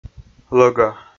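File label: "лӧга", Komi, male; kpv audio dict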